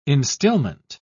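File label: "instillment", British English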